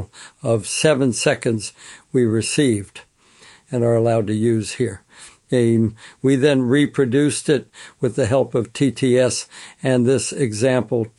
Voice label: Grumpy Old Man